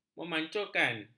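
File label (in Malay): "memancurkan", Malay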